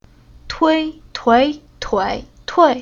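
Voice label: "Tui", Chinese syllable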